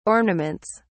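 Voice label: "ornaments", British English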